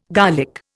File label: "garlic", Indian